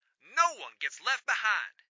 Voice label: Radio Chatter "No one gets left behind!"